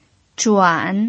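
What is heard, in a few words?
"zhuan3", Mandarin Chinese pinyin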